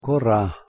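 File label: "korh2", Proto-Indo-European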